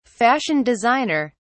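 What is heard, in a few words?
"fashion designer", British English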